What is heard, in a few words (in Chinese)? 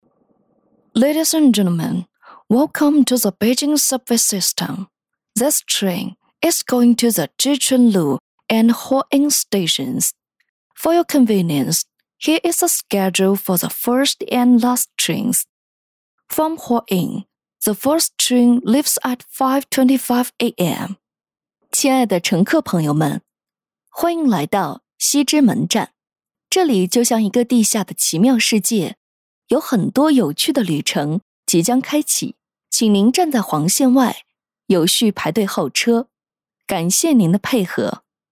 女 中英 地铁报站